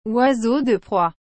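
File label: "oiseau de proie", French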